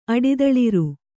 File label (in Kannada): "ಅಡಿದಳಿರ್", Kannada